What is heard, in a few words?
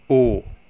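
o Scottish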